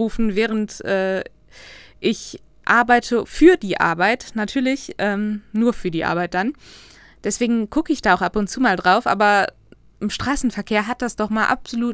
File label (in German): Busfahrer am Handy